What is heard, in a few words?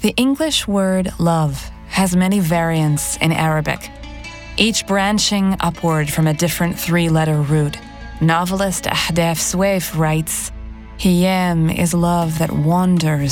E-learning